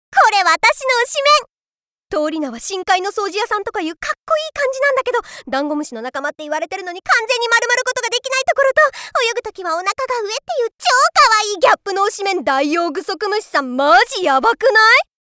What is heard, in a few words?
hightension; ハイテンションな子